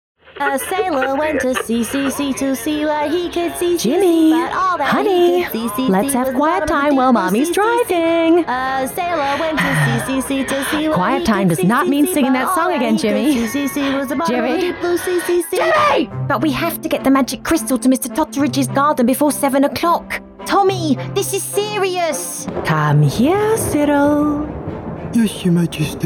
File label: Character